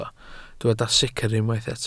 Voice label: "sicr", Welsh